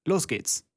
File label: german voice; wyrmsun